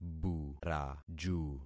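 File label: "buraju", concatenated syllables; Affixing experiment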